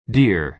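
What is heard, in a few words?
"dear", American English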